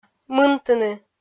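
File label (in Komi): "мынтыны", Komi, female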